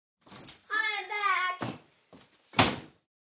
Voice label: 7 Yr Old Girl From Scho; kids play

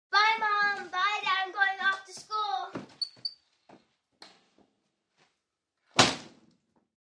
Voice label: 7 Yr Old Girl Off To Sch; HUMAN VOICES & SOUNDS SEVEN YEAR OLD GIRL: INT: Seven year old girl off to school

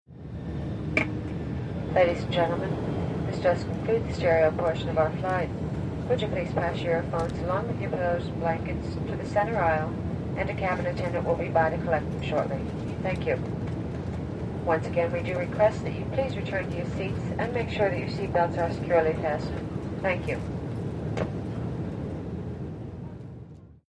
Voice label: 747 Jet Announce Land

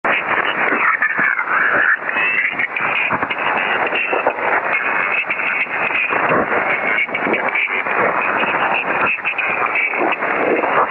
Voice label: Voices Crackling Vo, static

